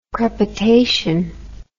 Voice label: "crepitation", English